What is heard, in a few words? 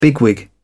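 "bigwig", British English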